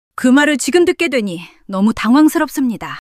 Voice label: fluster